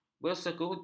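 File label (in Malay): "bersekutu", Malay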